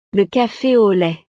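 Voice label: "le cafe au lait", French; snacks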